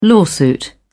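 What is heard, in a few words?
"lawsuit", British English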